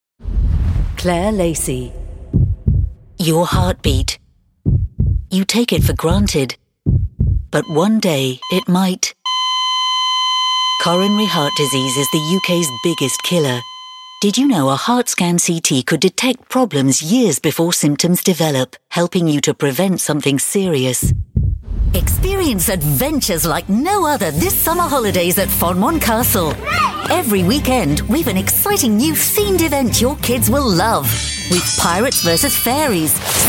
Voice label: commercial compilation